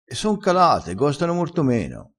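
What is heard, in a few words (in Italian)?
"gosta", crespinese dialettale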